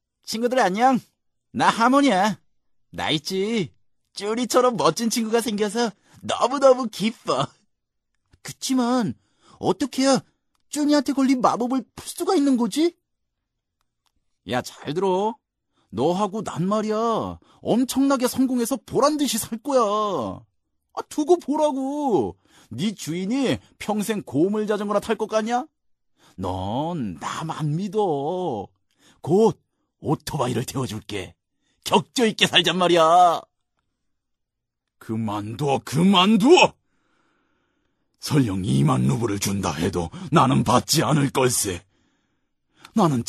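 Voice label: Korean, male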